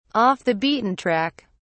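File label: "off the beaten track", British English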